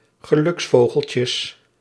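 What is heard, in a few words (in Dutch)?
"geluksvogeltjes", Dutch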